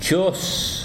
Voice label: "amarillo", Tsesungun